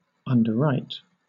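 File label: "underwrite", British English; pronunciations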